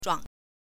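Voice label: "zhuang", Mandarin Chinese, neutral tone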